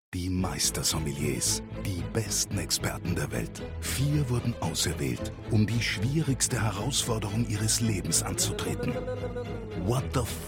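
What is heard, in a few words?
Austrian German Commercial; voice demos; GoLocalise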